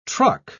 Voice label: "truck", pronounce